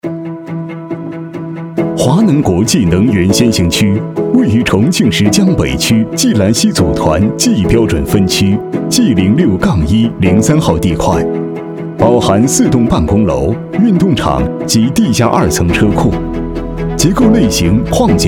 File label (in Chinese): 男 PPT汇报 大气; 华能国际能源先行区西南总部项目